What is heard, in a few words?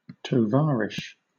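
"tovarish", British English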